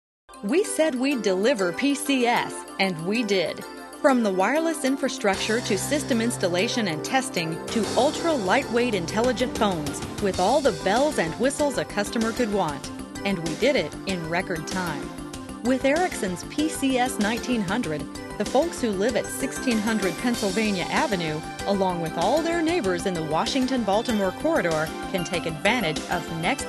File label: Commercial